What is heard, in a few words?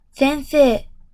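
"先生", Japanese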